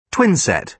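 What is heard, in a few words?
"twinset", British English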